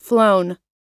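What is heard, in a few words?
"flown", US English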